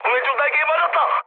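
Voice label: Korean left wing mood med, speech; War Thunder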